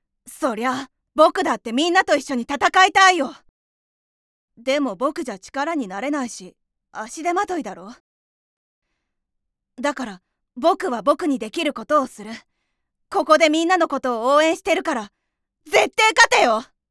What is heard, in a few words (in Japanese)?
セリフ6【男の子】; ◇出身地：長野県